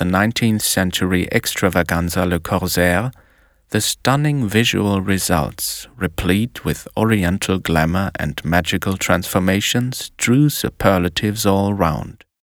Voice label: english reportage